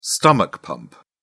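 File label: "stomach pump", English, British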